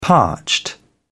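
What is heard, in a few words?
"parched", British English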